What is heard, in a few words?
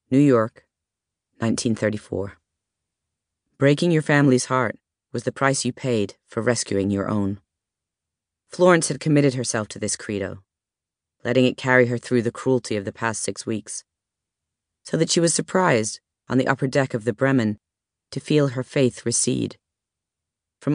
Audiobook American